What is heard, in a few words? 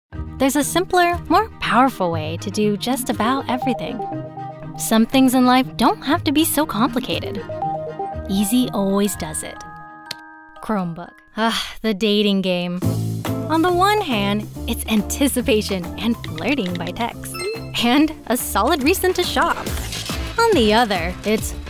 Commercial